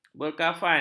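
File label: "berkafan", Malay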